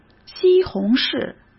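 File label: "西红柿", Mandarin Chinese; chinese flashcards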